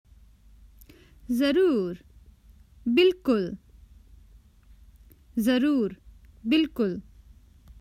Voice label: Common Hindi Phrases for travel Certainly Of course